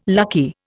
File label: "lucky", Indian